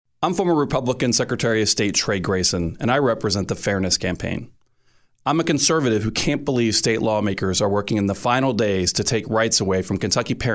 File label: Radio Ad; Trey Grayson; Oppose SB150